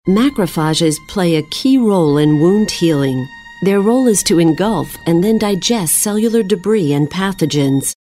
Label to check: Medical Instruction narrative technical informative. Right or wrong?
Right